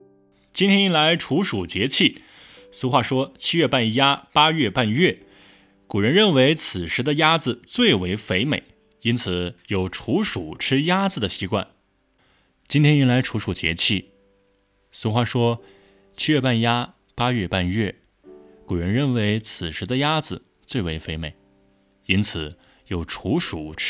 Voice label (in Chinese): 年轻解说